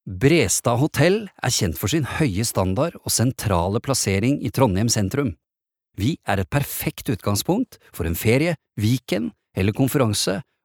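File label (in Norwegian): informativ, Norwegian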